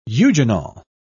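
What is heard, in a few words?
"eugenol", British English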